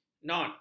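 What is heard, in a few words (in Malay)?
"knot", Malay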